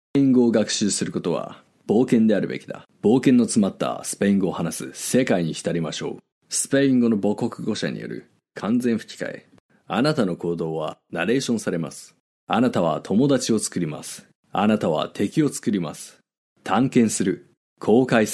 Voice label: 日语 男, 外语 小语种

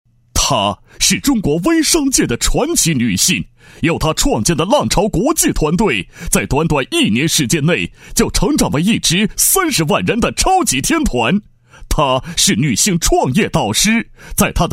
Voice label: 广告 电购 激情微商, 男

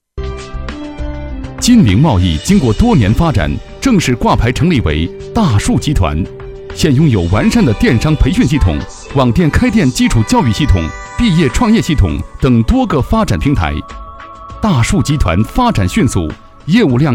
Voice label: 男国 广告 自然; 招聘; 大树集团诚聘

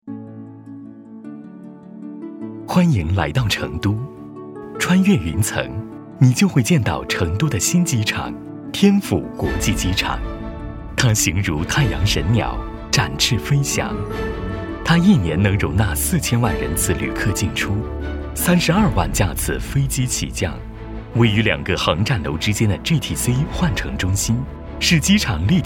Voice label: 宣传片 企业 机场 时尚, 男国

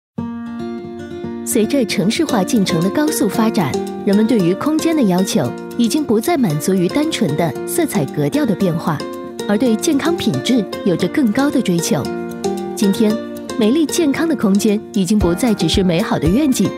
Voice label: 产品展示配音; 产品演示

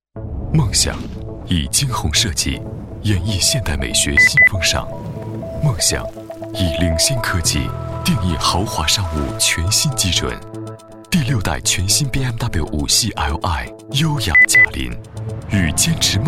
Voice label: 广告 汽车 大气; 宝马5系

